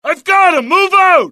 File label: suppress, dialogue generic US; mohaa